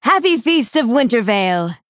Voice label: Female Dryad HAPPY HOLIDAYS